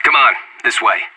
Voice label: radio follow me; anarchist

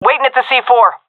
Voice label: at loose bomb, seal fem; vo; Counter Strike Model Pack; CS:GO

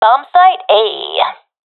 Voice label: professional fem, vo agents; Source Model Packs; Counter Strike Model Pack; CS2

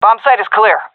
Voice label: bombsite clear, vo fem; agents; Source Model Packs; Counter Strike Model Pack; CS2

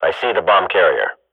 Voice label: radio bot bomb spot, swat; Counter Strike Model Pack; CS:GO

